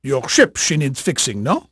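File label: French male, voice; Pirates Of The Caribbean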